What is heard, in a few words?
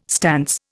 "stance", Indian